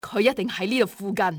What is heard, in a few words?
around here, cantonese; XBOX; enemy